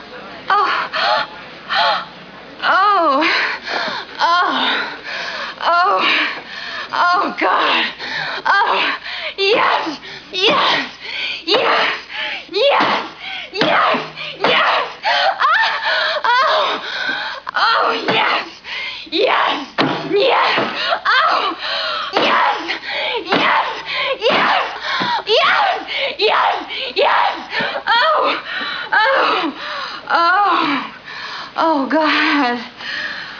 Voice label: spoken voice alert files